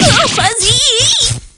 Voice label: shock; p4u; yukari

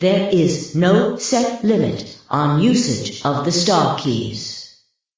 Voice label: announcer; no set limit on usage of star keys

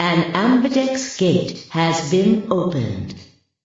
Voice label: announcer opening

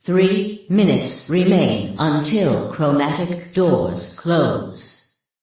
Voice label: announcer closing in 5 min

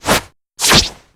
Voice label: threaten

